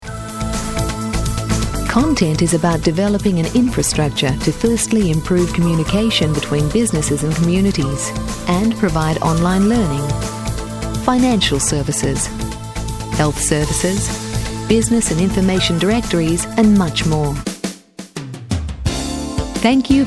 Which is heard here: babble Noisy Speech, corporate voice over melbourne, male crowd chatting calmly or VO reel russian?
corporate voice over melbourne